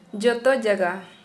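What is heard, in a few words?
Santali female everywhere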